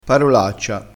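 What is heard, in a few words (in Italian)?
"parolaccia", dialettale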